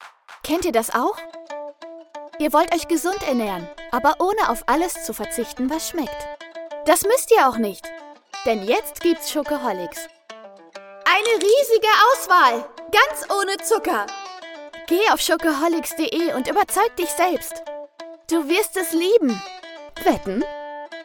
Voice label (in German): Werbung mit sound; frechfresh